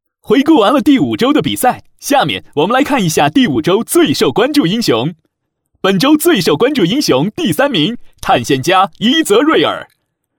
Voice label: 男 游戏, 国语男声配音; 英雄联盟LPL

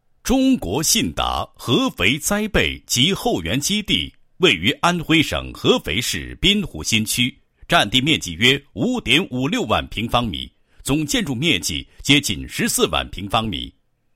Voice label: 男 解说专题 后援基地宣传视频解说词; 信达后援基地宣传视频解说词

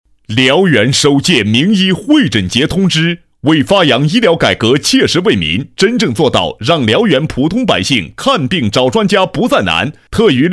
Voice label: 男 医疗广告, 国语男声配音; 辽源新

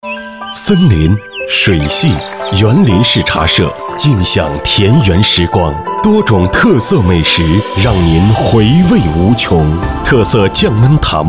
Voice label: 男, 广告, 美食, 自然成熟

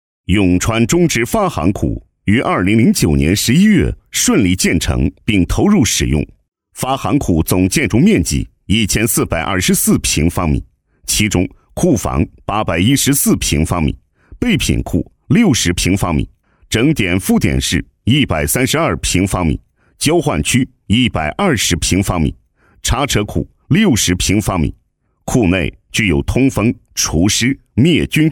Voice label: 男 工作汇报; 川中心支行发行库规范化建设工作纪实

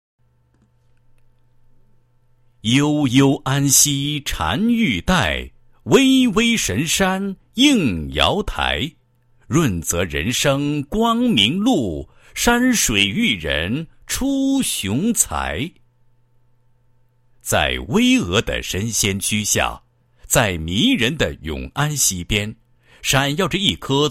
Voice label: 男 学校 宣传片解说词; 白塔镇第二小学

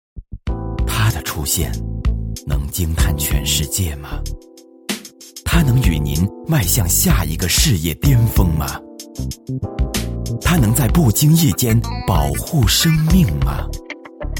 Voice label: 男 广告 磁性时尚, 国语男声配音; 沃尔沃S80

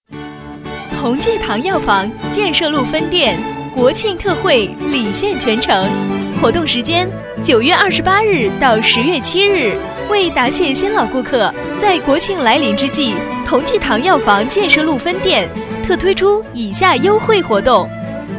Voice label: 女 同济堂药房, 国语女声配音 彩铃广告

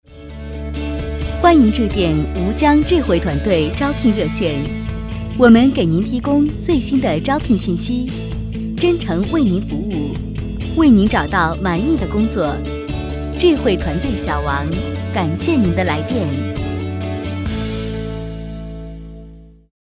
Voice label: 女 彩铃; 吴江智慧

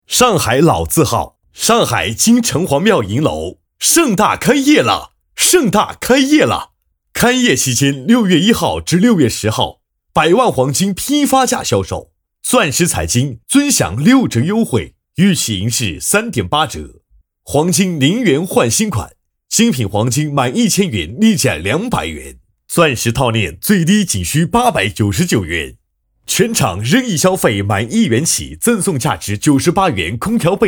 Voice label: 纯干音

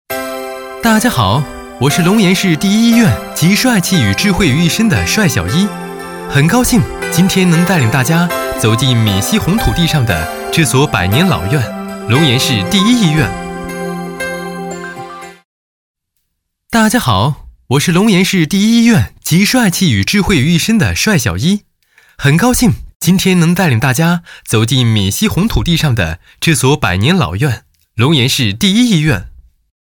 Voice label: 男 MG动画, 国语男声配音, 青春时尚; 龙岩市第一医院

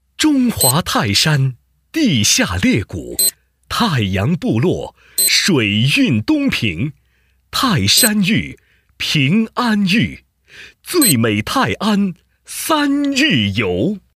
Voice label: 男 宣传广告; 泰安旅游广告混录

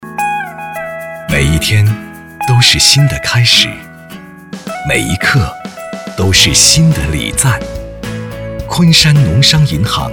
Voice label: 男, 讲述; 昆山农商银行

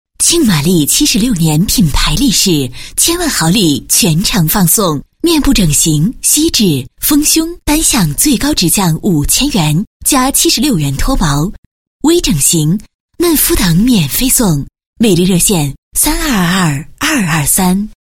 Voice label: 女 医院; 玛丽

